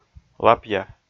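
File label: "лапъя", Komi, male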